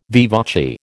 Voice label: "vivace", American